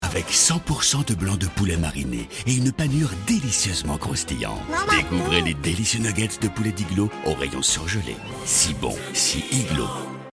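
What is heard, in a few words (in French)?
PUB, voix; Captain Iglo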